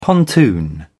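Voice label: "pontoon", British English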